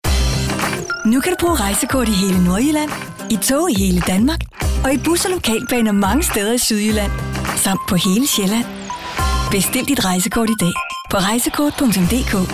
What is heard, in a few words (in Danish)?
Frisk reklame; Rejsekortet